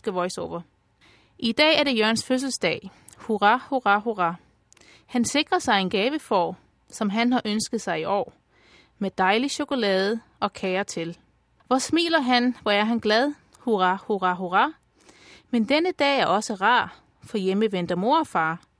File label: Danish